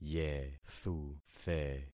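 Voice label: "yethuthe", concatenated syllables; Affixing experiment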